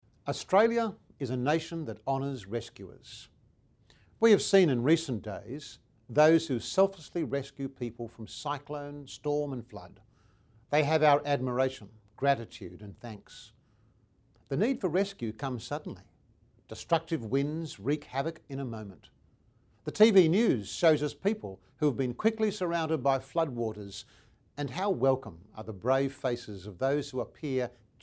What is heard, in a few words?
Easter Message Radio